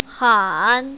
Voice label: "han3", mandarin, female